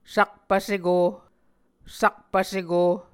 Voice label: "sakpv seko", Muscogee